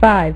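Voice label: "five", female; MacCribbage; InterStellar MacCribbage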